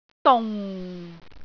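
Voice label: "dung4", Cantonese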